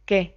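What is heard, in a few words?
"que", Spanish; tdeedu; klettres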